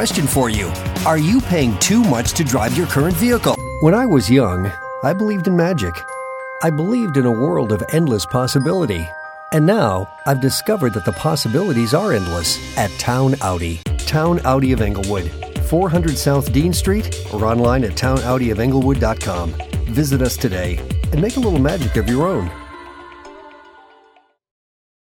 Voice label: Auto Spots, Voice